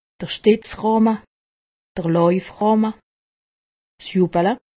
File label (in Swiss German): "youpala", alsace; medecine